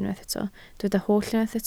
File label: "holl", Welsh